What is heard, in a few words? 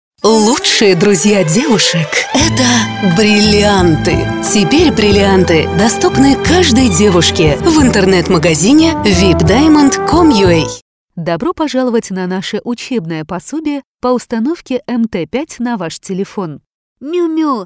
russian commercial